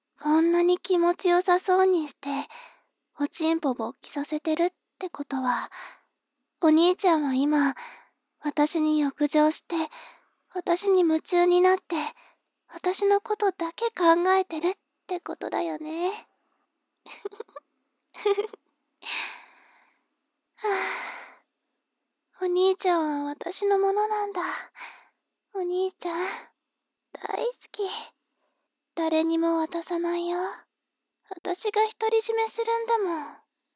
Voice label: 妹 台詞

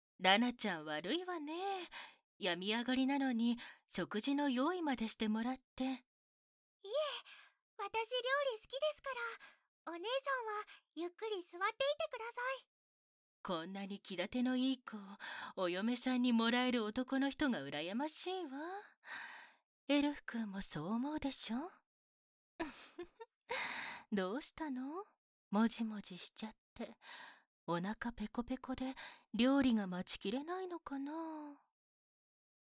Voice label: こっそりささやき焦らし手コキ (手コキ・ささやき); 恋人のそばでこっそりささやき焦らし手コキ; 効果音なし; エルフくん

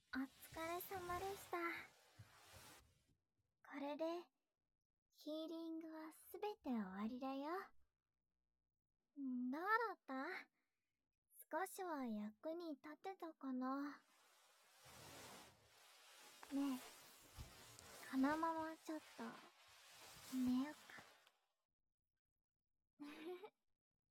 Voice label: 添い寝; 添い寝だねっ（右）

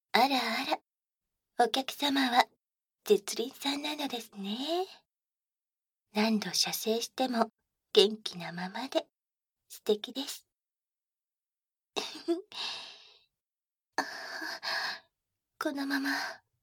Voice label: 授乳フェラ（バイノーラルマイク収録 効果音あり大きめ）

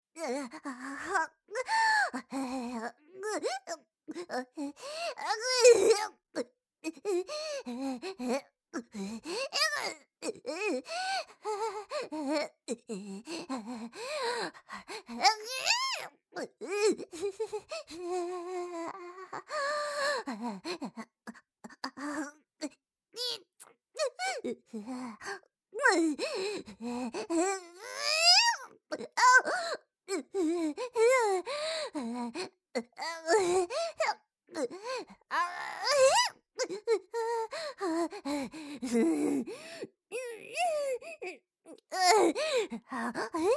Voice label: 腹痛 ボイス; お狐様

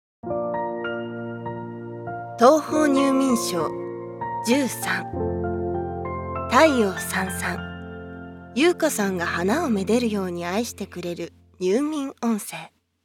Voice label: タイトルコール

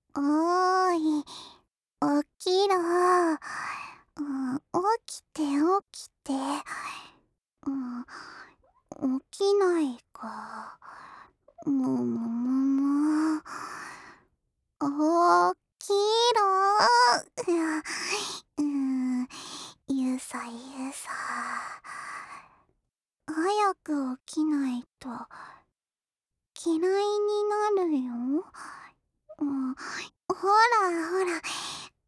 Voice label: 目覚ましボイス

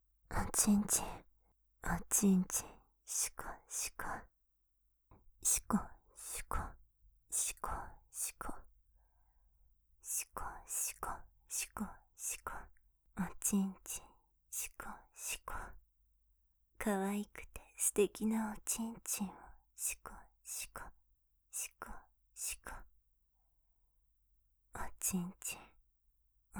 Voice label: ループ 淫語 右; 甘々でクーデレなお姉ちゃんといっしょ

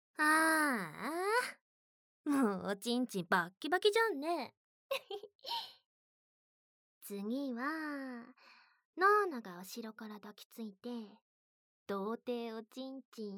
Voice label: 耳舐め手コキ責め 声のみ, ASMR; 効果音無し

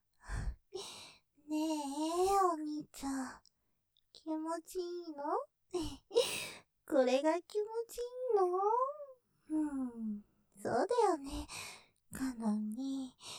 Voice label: 言葉責め; 気持ちいいの?ｗ; ステレオ; ループで楽しむ！シェフの気まぐれ抜き抜きコース

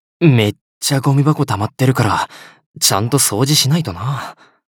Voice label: ゴミ箱を空にする, システムボイス; 樹

